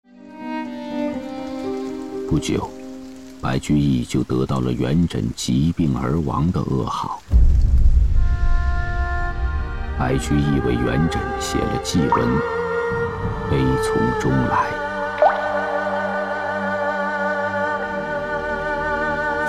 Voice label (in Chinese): 男 人物纪录片; 白居易